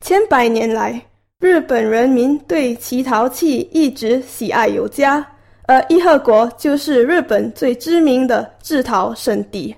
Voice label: VO Mandarin